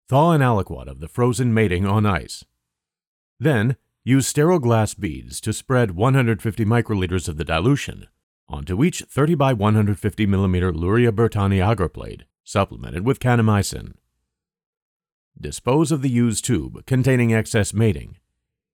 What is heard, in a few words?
Voiceover